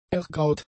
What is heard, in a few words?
"ergraut", German; adjectives